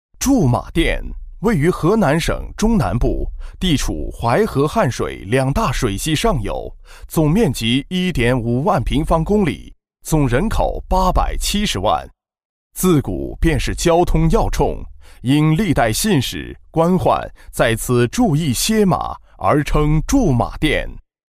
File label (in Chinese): 男 城市宣传 正式 传统 成熟; 驻马店